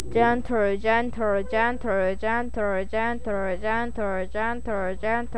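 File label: "gentle", spoken word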